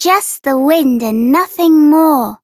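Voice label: Child Rhymes Fear Child Female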